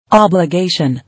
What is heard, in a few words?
"Obligation", English